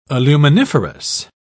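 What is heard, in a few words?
"aluminiferous", British English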